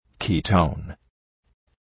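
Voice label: "ketone", English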